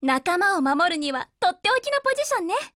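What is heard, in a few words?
FORMATION, Japanese; RMB93 Mod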